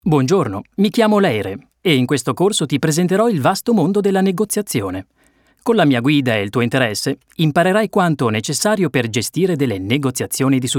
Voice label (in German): it elearning, italienisch; sprecher